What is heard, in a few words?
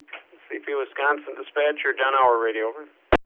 Radio